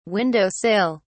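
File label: "window sill", British English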